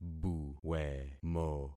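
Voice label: "buwemo", concatenated syllables; Affixing experiment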